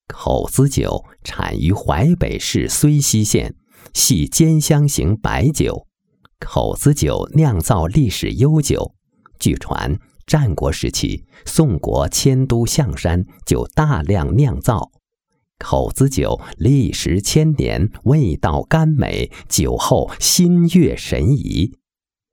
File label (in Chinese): 男; 口子酒